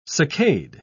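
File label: "succade", British English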